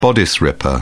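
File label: "bodice ripper", British English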